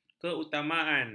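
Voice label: "keutamaan", Malay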